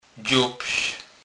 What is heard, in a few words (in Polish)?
"dzobz", kurpiow